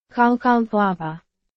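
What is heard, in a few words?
Burmese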